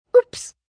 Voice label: Oops, Reaction, 1 child, 3 yrs.